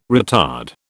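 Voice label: "retard", British English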